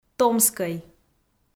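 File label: "томскӧй", Komi, female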